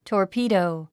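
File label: "torpedo", English word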